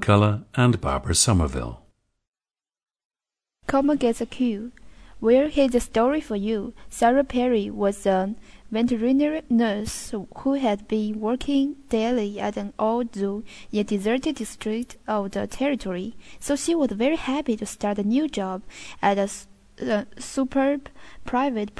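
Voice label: jiangsu, dialects of English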